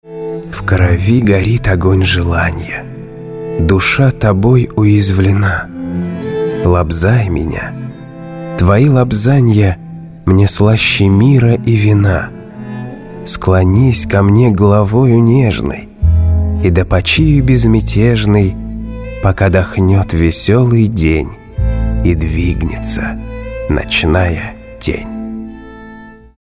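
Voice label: Russian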